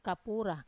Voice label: "kapura", dove, wamesa

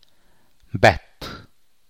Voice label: "bekwla", Teotitlán del Valle Zapotec; skunk

